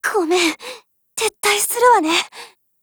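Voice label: Japanese; PM; BREAK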